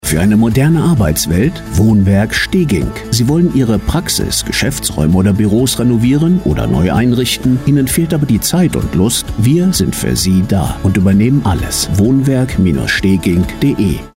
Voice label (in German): Funkspot; Wohnwerk Stegink GmbH